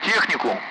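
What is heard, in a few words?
Speech, Russian; Allied Flight; DCS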